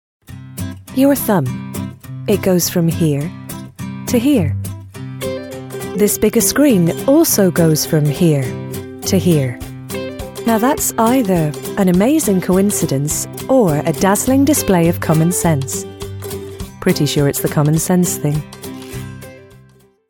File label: advert